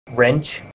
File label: "wrench", voice